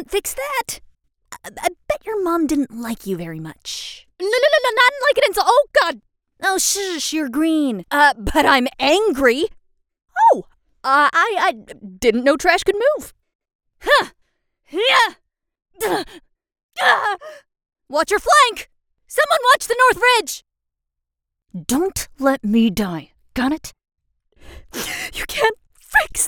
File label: Recruit Female